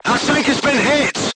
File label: voice message player tank hit, crew tanks portuguese